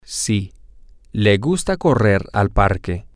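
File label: yes he likes to run to the park, Spanish, male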